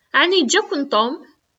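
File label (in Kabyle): Ɛni yeǧǧa-ken Tom?, Kabyle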